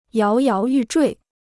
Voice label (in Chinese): "摇摇欲坠", Chinese